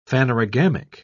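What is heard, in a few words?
"phanerogamic", English, British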